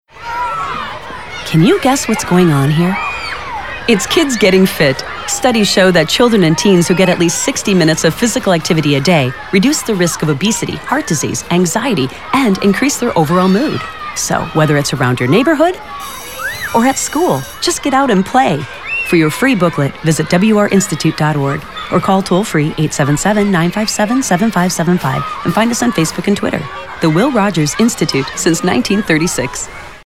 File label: Radio Kid Fit